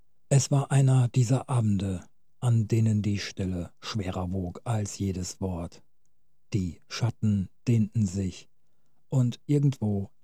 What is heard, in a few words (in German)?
Erzaehlerisch Hoerbuch Style